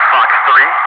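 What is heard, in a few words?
"FOX 2", English, Wingman; DCS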